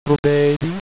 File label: "Robedi", Sesotho